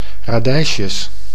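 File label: "radijsjes", Dutch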